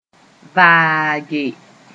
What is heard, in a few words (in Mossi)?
"vaage", moore